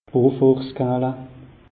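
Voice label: "beaufortskala", Alsatian, Haut Rhin; meteo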